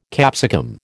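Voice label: "capsicum", American English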